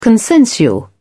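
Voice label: "consensual", British English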